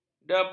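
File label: "dabung", Malay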